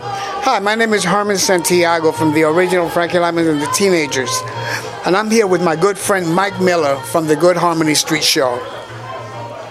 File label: promo